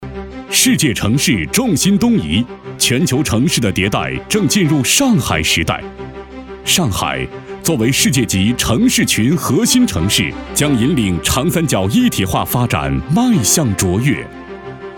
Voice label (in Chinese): 男 专题 科技现代; 普陀专题